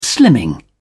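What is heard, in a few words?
"slimming", British English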